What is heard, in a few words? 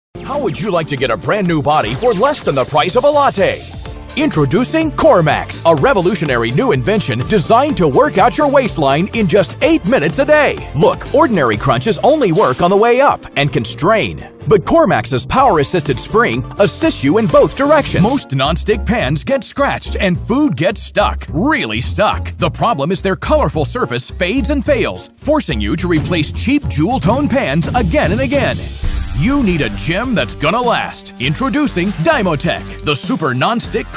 INFOMERCIAL